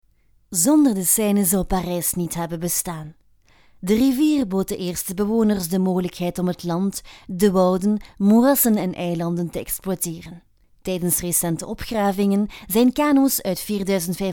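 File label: Flemish, female, corporate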